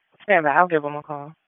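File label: Police Southwest District Disp, radio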